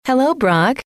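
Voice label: hello, female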